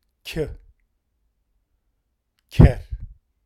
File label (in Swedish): Kjh Kjher, Romska alfabetet